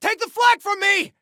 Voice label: Flag Take, Soldier Male; Soldier Male 01

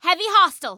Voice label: Warn Heavy, Female; Female 02

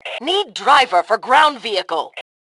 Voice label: Need Driver, Female; T2 Female 02

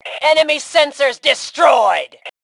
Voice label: Enemy Sensors, Female; T2 Female 04

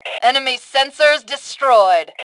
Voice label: Enemy Sensors, Female; T2 Female 03

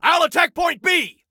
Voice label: ILL ATTACK, Male; T2 Male 01

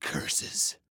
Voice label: "Ooops", Male

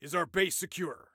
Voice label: Team Base Secure, Soldier Male; Soldier Male 02

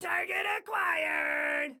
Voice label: Target Acquired, Excited Guy

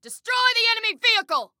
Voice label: Attack Vehicle, Female

